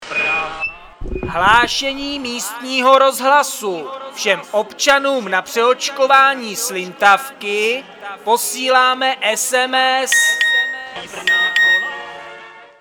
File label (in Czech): rozhlas